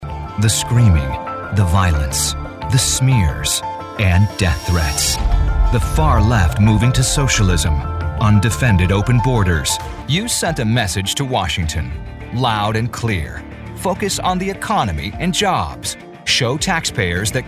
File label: english american political ads